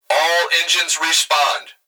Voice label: Emergency Human Male Voice Fire Dispatch All Engines Respond; emergency effects